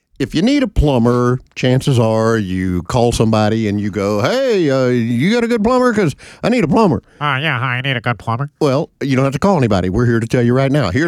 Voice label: RADIO AD; nicks plumbing radio ads